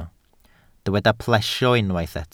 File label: "plesio", welsh